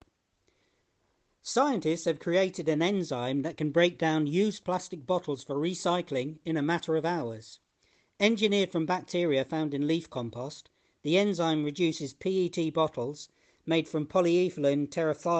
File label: News Story British